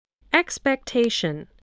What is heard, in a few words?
"expectation", voice